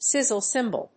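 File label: "sizzle cymbal", English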